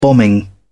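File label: "bombing", British English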